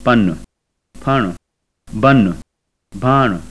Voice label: sindhi; [ˈpənʊ ˈpʰɑ:ɳʊ ˈbəɳʊ ˈbʱɑ:ɳʊ]